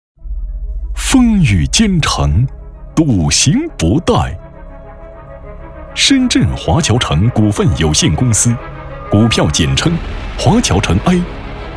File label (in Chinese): 男 企业宣传类 大气 昂扬 厚重; 华侨城